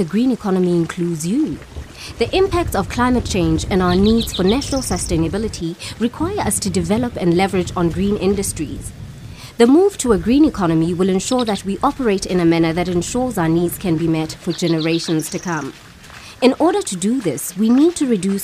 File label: environment month radio advert; National Environment Month 2012